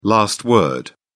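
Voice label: "last word", British English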